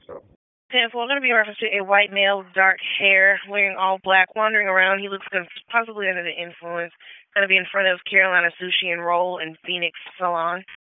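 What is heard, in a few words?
Raleigh Police Northeast District Dispatch, p25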